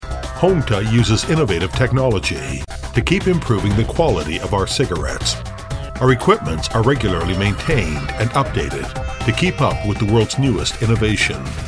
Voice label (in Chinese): 外籍男英 外语 美式英语 英文中间